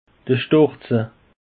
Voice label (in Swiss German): "storze", alsace; peche